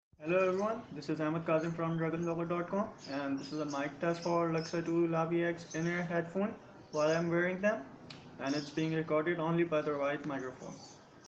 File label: Microphone Test Sample; Luxa2 Lavi X